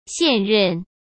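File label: "现任", Mandarin Chinese; chinese flashcards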